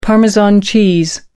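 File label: "parmesan cheese", British English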